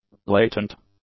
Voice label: "latent", english; adjectives

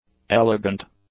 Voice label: "elegant", English; adjectives

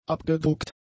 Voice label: "abgedruckt", German; adjectives

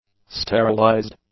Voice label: "sterilized", English; adjectives

